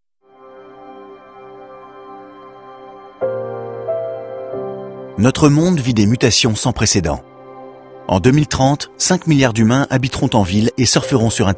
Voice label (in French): Engagé rapide Institutionnel; NEXANS